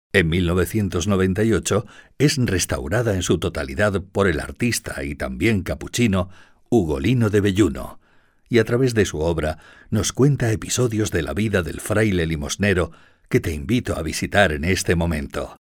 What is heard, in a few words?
locutor spanish voiceover